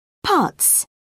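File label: "putz", British English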